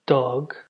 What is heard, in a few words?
"dog", ulster; jetpackLearningPlatform; story-arranger